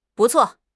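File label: "bu4 cuo4", Mandarin Chinese; HSK2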